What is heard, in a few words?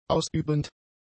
"ausübend", German; adjectives